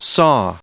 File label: "saw", en-us